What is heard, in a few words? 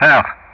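"Perth", English; Ka-50; Player Callsign; DCS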